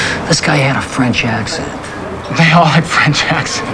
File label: accents